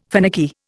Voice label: "finicky", Indian